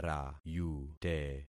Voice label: "rayute", concat syllables; Affixing experiment

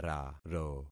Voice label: "raro", concat syllables; Affixing experiment

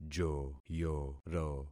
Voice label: "joyoro", concat syllables; Affixing experiment

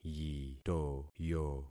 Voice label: "yitoyo", concat syllables; Affixing experiment

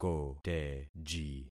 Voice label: "koteji", concat syllables; Affixing experiment

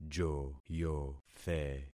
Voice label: "joyothe", concat syllables; Affixing experiment

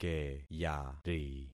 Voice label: "keyari", concat syllables; Affixing experiment

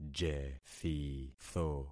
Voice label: "jethitho", concat syllables; Affixing experiment

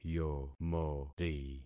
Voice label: "yomori", concat syllables; Affixing experiment

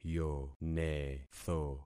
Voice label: "yonetho", concat syllables; Affixing experiment

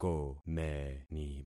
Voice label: "komeni", concat syllables; Affixing experiment

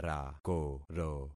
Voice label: "rakoro", concat syllables; Affixing experiment

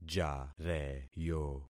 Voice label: "jareyo", concat syllables; Affixing experiment

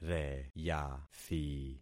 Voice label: "reyathi", concat syllables; Affixing experiment

